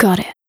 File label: "Got it", vocal exclamation